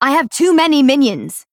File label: Female Dryad ERROR